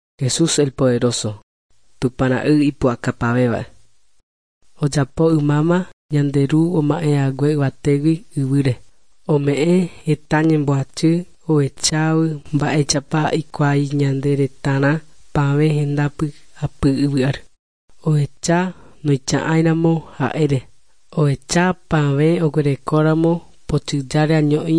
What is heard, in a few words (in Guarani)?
guarani mbya; good news and words of life; bible